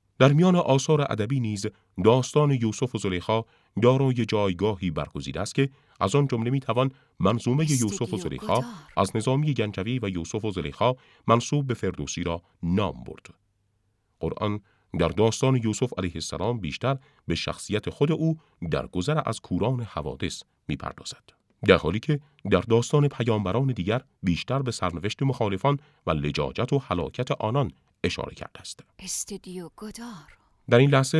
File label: radio